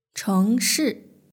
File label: "chengshi", Chinese; hsk3